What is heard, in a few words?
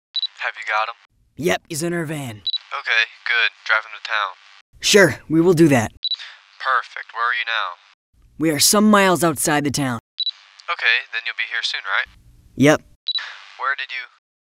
dialogue, van